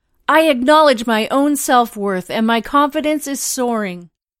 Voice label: Female Confidence